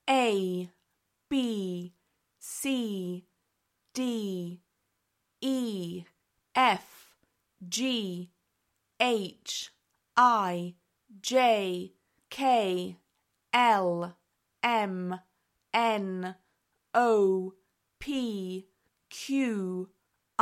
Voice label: alphabet in british